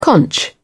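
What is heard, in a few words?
"conch", British English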